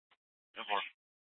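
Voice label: police dispatch, Scottsdale PD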